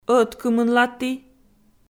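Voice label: "ӧткымынлаті", Komi, female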